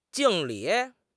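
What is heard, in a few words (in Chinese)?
"敬礼", Chinese; HSK6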